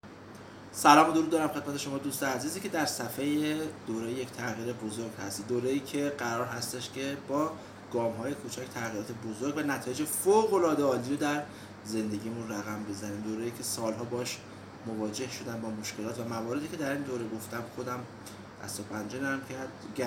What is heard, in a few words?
voice big change